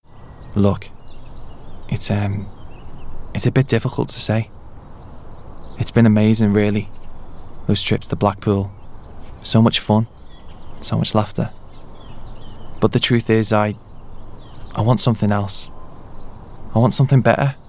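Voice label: English male Liverpool Accent Commercial; voice over; accents of england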